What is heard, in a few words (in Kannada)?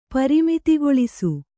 "ಪರಿಮಿತಿಗೊಳಿಸು", Kannada